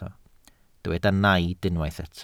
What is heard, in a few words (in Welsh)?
"naid" Welsh